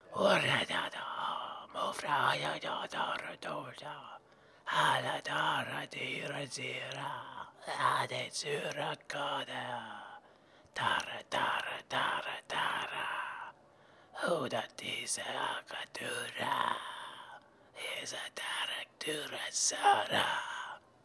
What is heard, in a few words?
idle mutter; Hollow Knight: Silksong